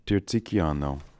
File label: "Tirzikiano", pronounciations; Fourth Way; Beelzebub